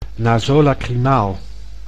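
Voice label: "nasolacrimaal", Dutch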